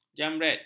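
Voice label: "jambret", Malay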